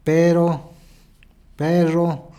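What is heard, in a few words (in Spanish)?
"pero perro", Spanish, Peru, Cusco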